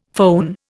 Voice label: "foehn", Indian